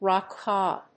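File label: "rock cod", English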